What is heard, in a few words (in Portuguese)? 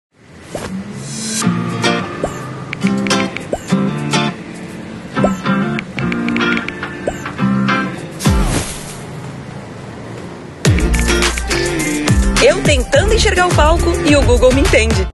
conversado